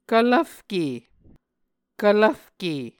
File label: "kvlvfke", Muscogee